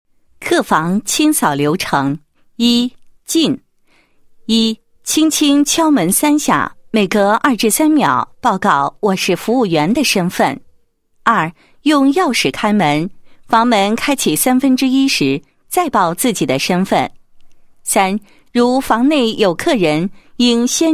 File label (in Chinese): 女, 工作流程; 客房清扫流程